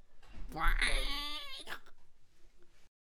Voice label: Balloon Archer Attack Vocals